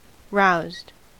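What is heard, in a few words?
"roused", American English; pronunciations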